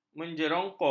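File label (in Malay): "menjerongkong", Malay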